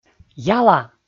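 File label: "яла", Komi, male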